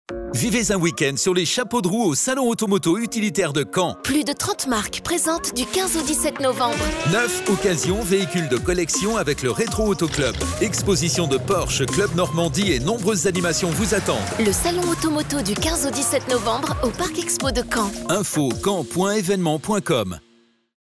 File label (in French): SPOT RADIO SALON AUTO MOTO; CAEN EVENEMENTS